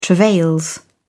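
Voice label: "travails", British English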